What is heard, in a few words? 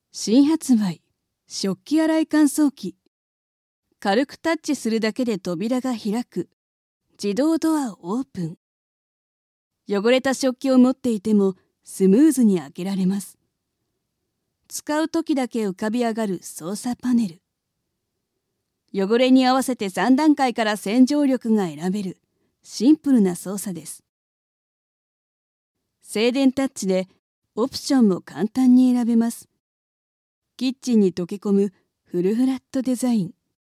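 voice sample commercial washing machine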